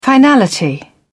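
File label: "finality", British English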